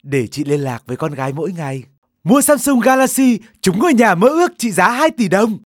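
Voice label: Vietnamese Voice Sample Male North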